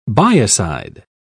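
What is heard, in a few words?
"biocide", English, uk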